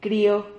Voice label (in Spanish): "crio", Silabas